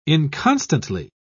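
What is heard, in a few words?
"inconstantly", British English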